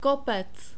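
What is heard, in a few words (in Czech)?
"kopec", czech, male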